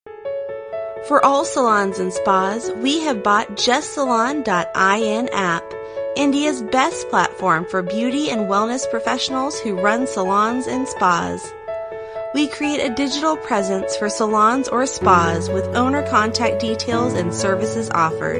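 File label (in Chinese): 外籍女英_外语_美式英语_样音_时尚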